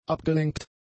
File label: "abgelenkt", German; adjectives